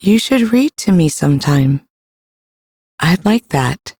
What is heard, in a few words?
Ambient Female, en; Duviri